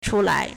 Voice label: "chu1lai2", Chinese

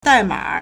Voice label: "dai4 ma3", Chinese

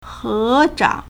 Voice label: "he2 zhang3", Chinese

